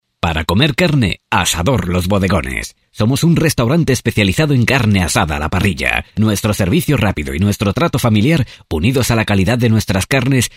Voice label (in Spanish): locutor spain; spanish voices over spain